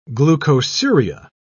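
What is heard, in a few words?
"glucosuria", English, British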